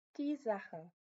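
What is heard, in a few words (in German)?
"Sache", German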